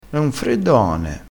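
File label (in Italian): "cane", dialettale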